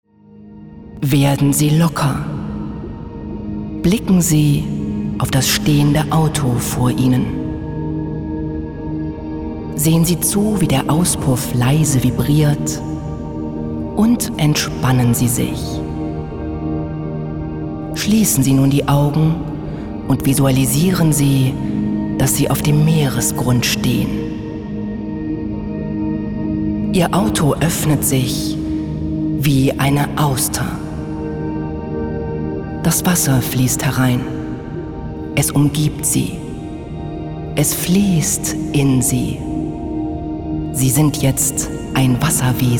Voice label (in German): Staumeditation Werbung Meditation; Die Bahn; LOFT VOICES